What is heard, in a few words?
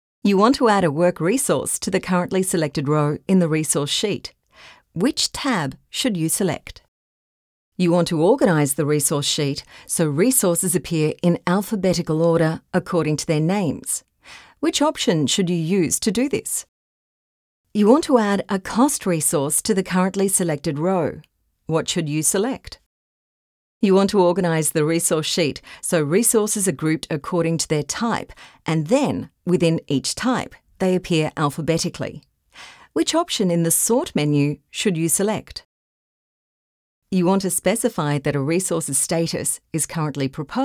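E Learning Software, female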